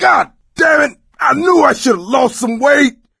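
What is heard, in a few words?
ledge hang middle, voice, male; Left 4 Dead 2; coach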